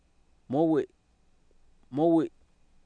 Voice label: "muwi", Oapan, male; Oapan minimal pairs 2000

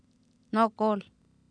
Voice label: "nOokOol", Oapan minimal pairs, female; Oapan minimal pairs 1998

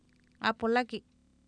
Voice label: aapolaki, Oapan minimal pairs female; Oapan minimal pairs 1998

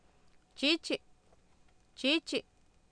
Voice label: "chiichi" Nahuatl, Oapan, female; Oapan minimal pairs 2000

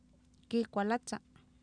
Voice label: kiikwalatsa, Oapan minimal pairs female; Oapan minimal pairs 1998

